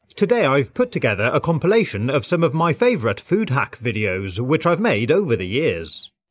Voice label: British English UK